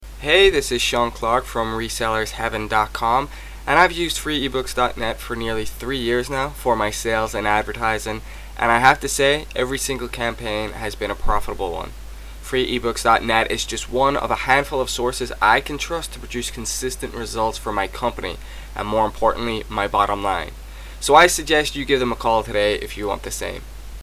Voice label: testimonial